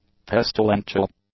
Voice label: "pestilential", english; adjectives